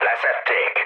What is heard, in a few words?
aircraft destroyed, speech hun; War Thunder